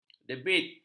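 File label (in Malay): "debit", Malay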